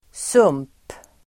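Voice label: "sump", Swedish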